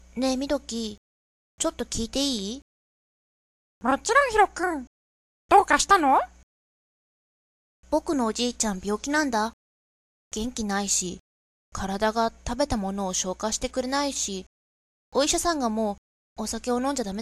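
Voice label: Japanese character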